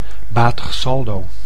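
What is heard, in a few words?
"batig saldo", Dutch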